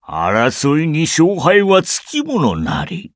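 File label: victory, Japanese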